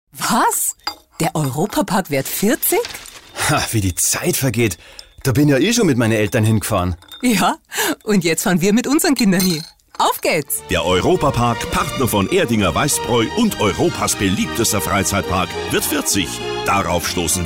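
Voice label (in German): Werbung Dialekt Bayerisch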